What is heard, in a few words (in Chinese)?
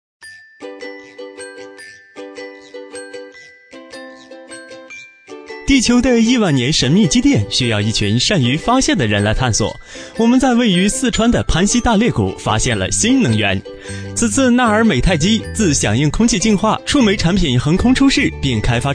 男; 飞碟说; 纳尔美